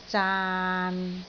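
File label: "zaan6", Cantonese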